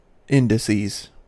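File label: "indices", US English; pronunciations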